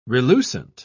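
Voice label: "relucent", British English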